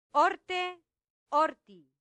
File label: "horte horti", latin; santillana